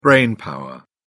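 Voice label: "brainpower", British English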